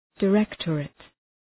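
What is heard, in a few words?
"directorate", English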